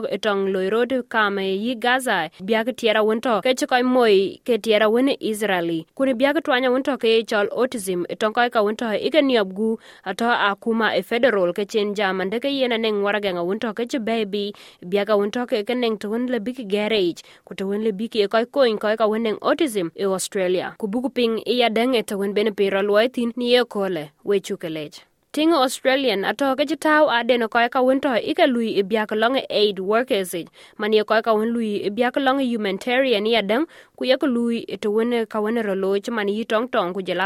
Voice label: SBS Dinka News Flash, Dinka